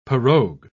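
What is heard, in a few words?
"pirogue", British English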